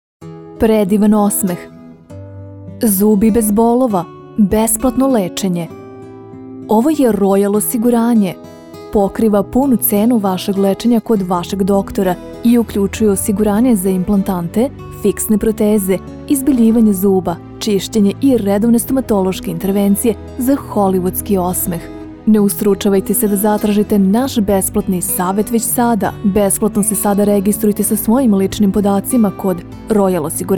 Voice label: serbian e-learning; Professional Serbian native voice-over actor from Belgrade